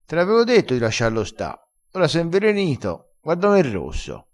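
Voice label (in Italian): "invelenito", crespinese dialettale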